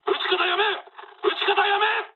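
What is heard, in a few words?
player friendly fire mood low, speech japanese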